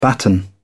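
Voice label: "batten", British English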